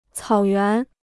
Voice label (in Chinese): "草原", Chinese